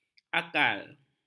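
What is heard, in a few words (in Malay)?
"akal", Malay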